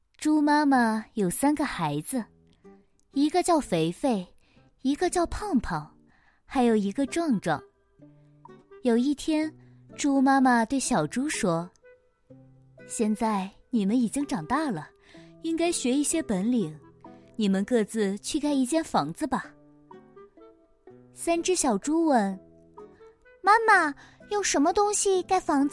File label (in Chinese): 有声书样音儿童寓言绘本